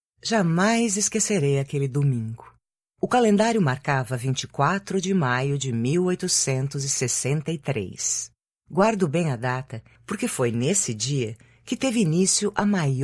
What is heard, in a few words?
Audiobooks